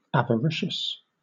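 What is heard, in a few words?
"avaricious", British English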